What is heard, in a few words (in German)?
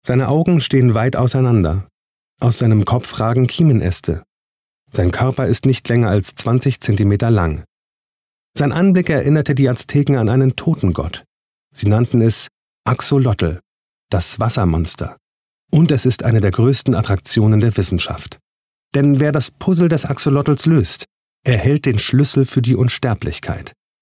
Dokumentarischer Text; LOFT VOICES